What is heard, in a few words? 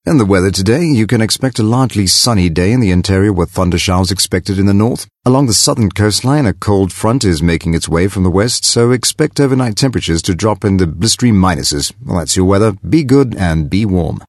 Accent British